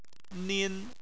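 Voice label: "nin" first tone, Chinese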